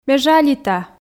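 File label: Komi sentences, female; ме жалита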